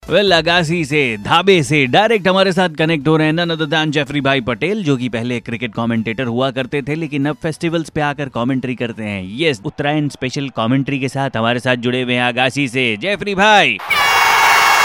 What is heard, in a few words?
cricket commentary